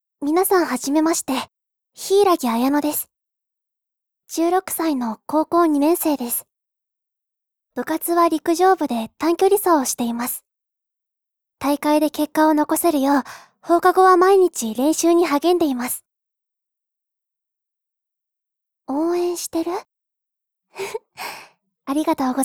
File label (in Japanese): 自己紹介, ASMR